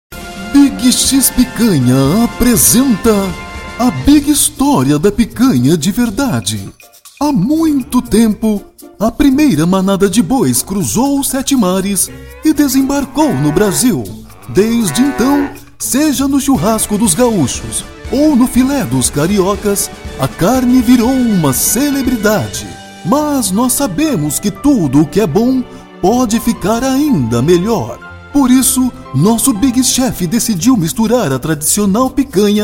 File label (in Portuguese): Locução para o vídeo; Big X Picanha; A História da Picanha